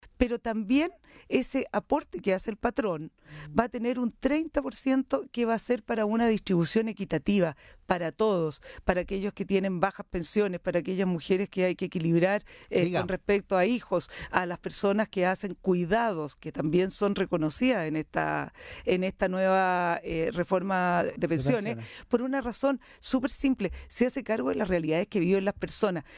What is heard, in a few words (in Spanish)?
cuña